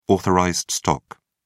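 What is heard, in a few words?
"authorized stock", British English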